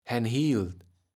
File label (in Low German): "hänhiel", kaevels platt